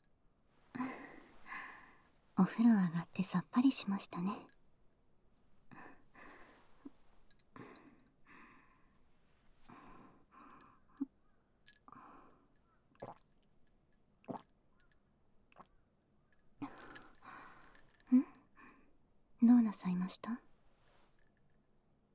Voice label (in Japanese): お風呂上がりお耳癒しマッサージ